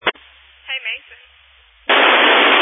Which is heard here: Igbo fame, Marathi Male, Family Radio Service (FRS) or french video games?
Family Radio Service (FRS)